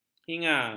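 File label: "hingar", Malay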